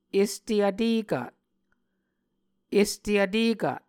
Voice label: "este vtekat", Muscogee

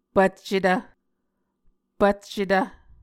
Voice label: "pvccetv", Muscogee; Mvskoke Words with Repeated Consonants; Onvkv Ohhvyayices; A Dictionary of Creek/Muskogee; SIL International

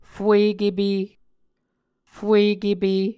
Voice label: "fuekepe", Muscogee

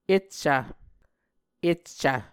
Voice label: "eccv", Muscogee; Words with "cc"; A Dictionary of Creek/Muskogee; SIL International

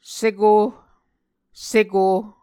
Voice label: "seko", Muscogee

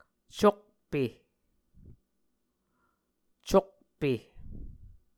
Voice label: "cokpe", Muscogee

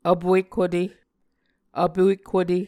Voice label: "vpuek hute", muscogee

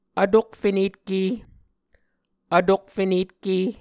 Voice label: "vtokfenetke", Muscogee

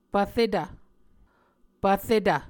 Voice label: "pvretv", Muscogee

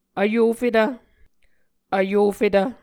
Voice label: "vyofetv", Muscogee

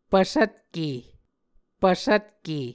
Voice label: "pvsvtke", Muscogee

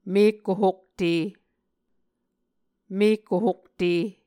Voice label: "mekko hokte", Muscogee

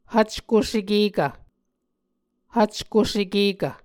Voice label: "hvcko sekekv", Muscogee

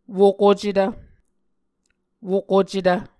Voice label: "wokocetv", Muscogee

